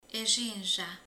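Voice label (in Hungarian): "íny", Hungarian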